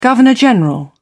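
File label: "governor general", English, British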